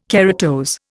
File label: "keratose", Indian